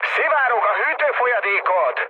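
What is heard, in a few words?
Hungarian damaged water mood med, speech; War Thunder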